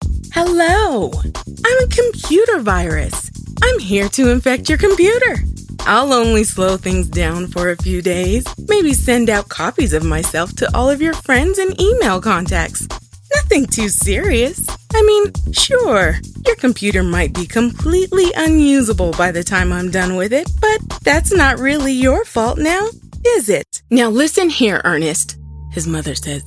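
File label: ENUS Narrative, American English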